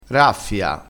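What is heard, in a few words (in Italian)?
"raffia", dialettale, crespinese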